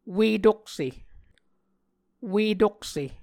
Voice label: "ue-tokse", muscogee

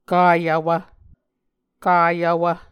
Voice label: "kayvwv", Muscogee

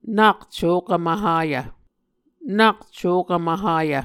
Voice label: "nak cokv mvhayv", Muscogee

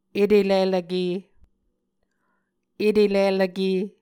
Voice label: "etelilvke", muscogee

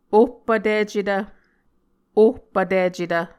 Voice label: "ohpvticetv", Muscogee

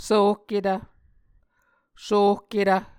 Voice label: "svohketv", Muscogee

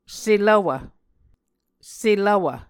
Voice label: "selvwv", Muscogee

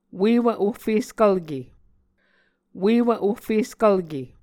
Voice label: "uewv ohfeskvlke", Muscogee

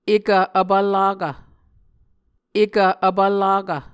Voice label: "ekv vpvllakv", muscogee

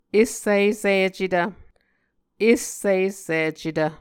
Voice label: "essisicetv", Muscogee

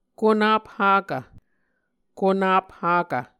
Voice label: "konap hakv", Muscogee; Mvskoke Words with Voicing Consonants; Onvkv Ohhvyayices

